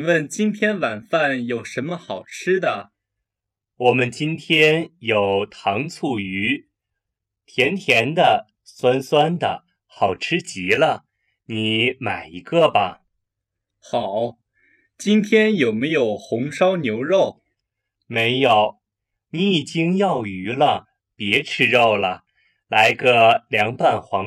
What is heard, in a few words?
lesson dialogue slow